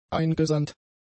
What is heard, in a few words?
"eingesandt", German; adjectives